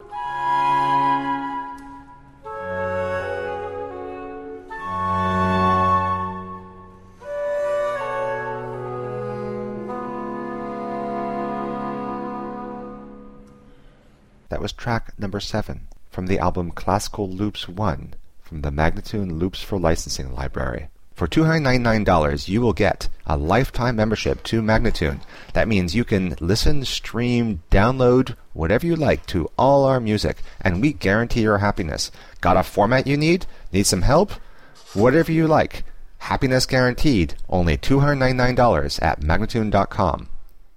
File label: american baroque spoken